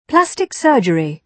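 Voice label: "plastic surgery", British English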